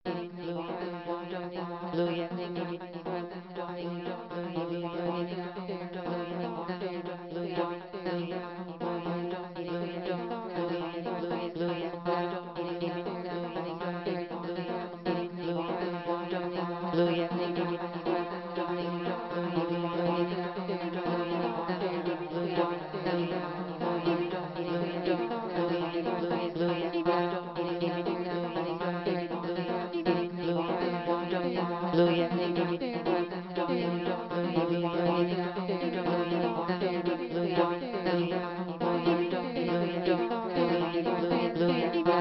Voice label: Idle Chatter; Paul Lansky